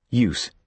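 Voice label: "use", British English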